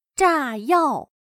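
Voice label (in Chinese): "炸药", Chinese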